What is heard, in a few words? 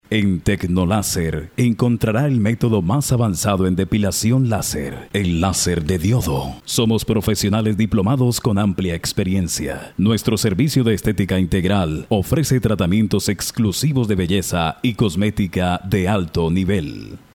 locutor colombiano colombian voiceover